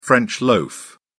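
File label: "french loaf", British English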